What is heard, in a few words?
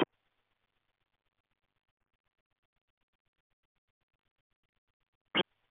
Space to Ground; Expedition 39